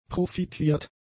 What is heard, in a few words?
"profitiert", German; adjectives